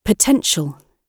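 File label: "potential", British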